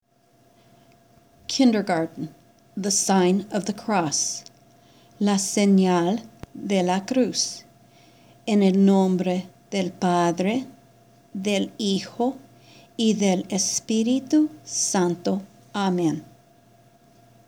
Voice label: Kindergarten Prayer